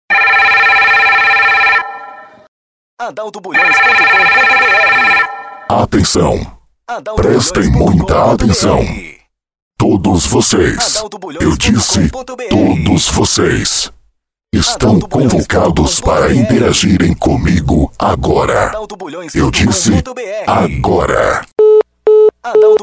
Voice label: voz do big fone para spot comercia; BBB Big Boss

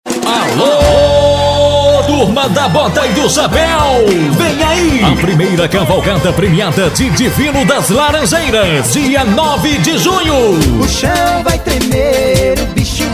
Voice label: spot cavalgada; laranjeiras

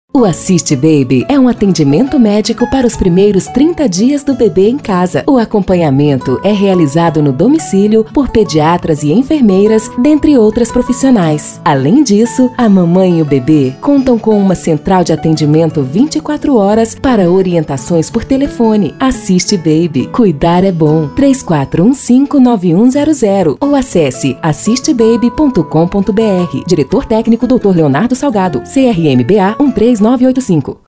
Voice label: spot comercial voz locutora spot30; assiste baby

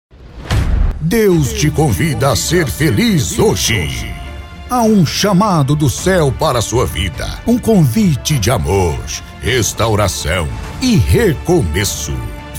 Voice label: chamada pra igreja evangelica voz grave impacto

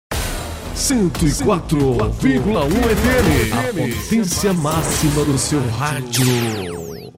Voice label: vinheta 104,1 FM

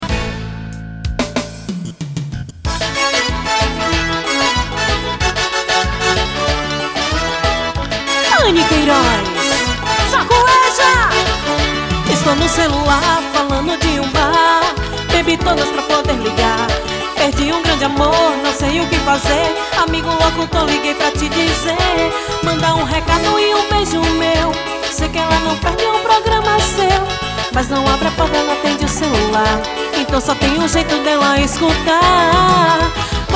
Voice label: locutor

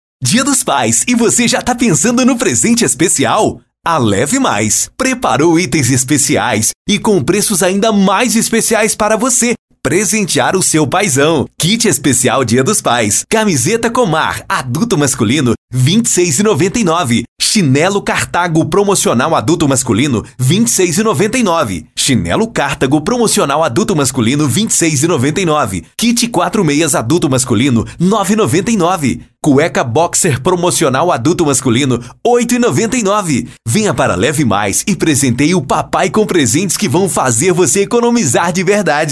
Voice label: locutor

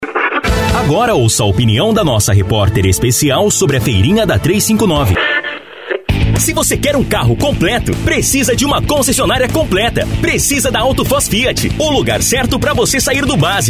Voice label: voz varejo, jovem; Studio e Produtora Valentina

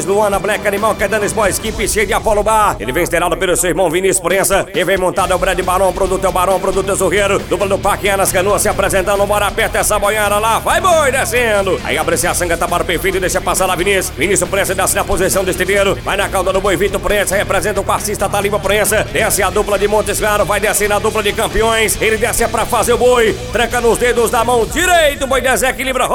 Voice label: locutor

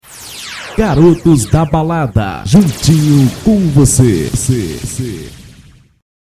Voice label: vinheta oficial

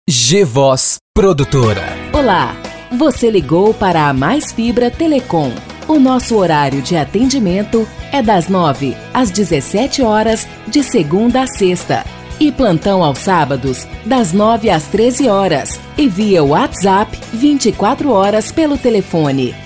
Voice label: Voz locutora, Espera Telefônica; Palco MP3

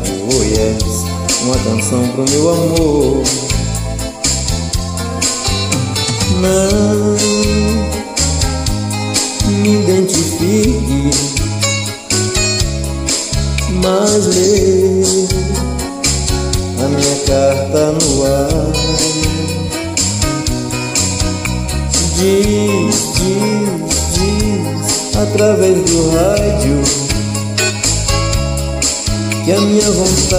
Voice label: locutor, a voz romantica do brega

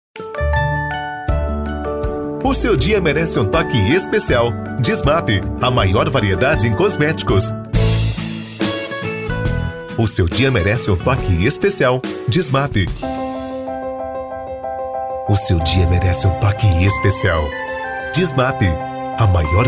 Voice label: locutor; Puffy Publicidade e Propaganda

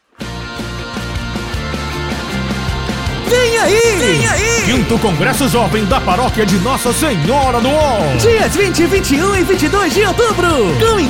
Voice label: locuções; cristo folia 2017

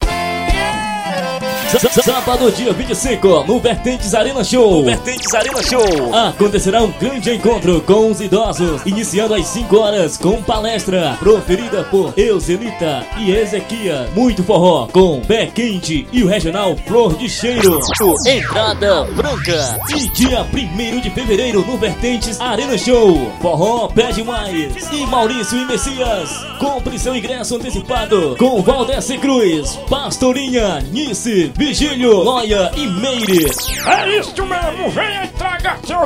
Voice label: Vinheta; vertentes arena show

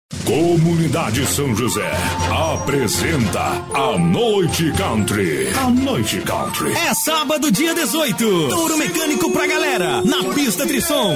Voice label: locutor pop

